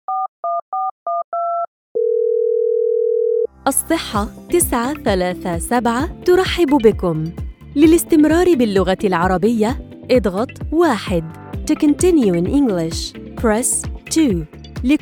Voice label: ivr